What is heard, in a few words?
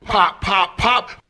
"pop pop pop", voice; ooh ahh